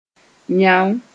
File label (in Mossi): "yão", moore